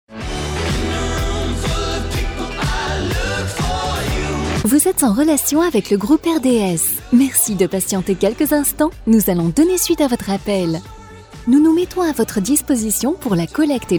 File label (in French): Attente pub; exemples de messages vocaux